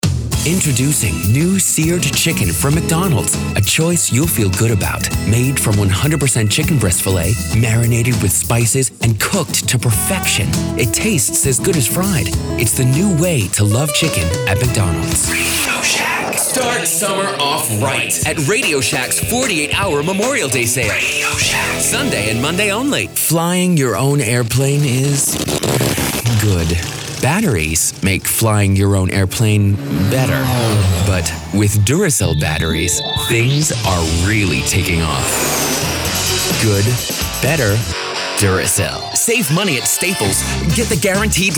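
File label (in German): English US Werbung Freundlich, voices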